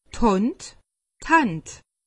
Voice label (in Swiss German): "hand", alsace; medecine